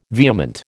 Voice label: "vehement", American